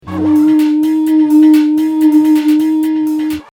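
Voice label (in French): "oui oui oui", français; residence MDQ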